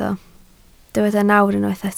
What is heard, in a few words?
"nawr", Welsh